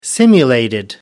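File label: "simulated", British English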